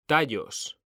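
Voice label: "tallos", Spanish, male; mekanta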